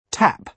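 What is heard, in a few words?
"tap", British English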